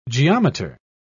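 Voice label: "geometer", British English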